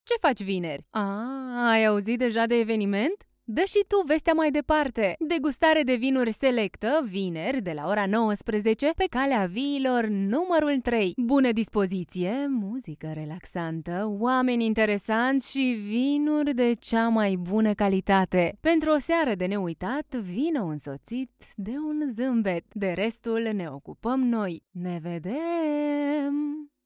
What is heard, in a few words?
romanian promos